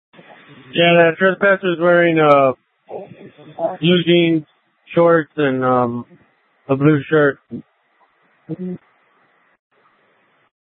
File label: Light Rail Ops, scanner